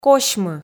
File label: "косьмы", Komi